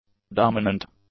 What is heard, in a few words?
"dominant", English; adjectives